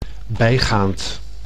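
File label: "bijgaand", Dutch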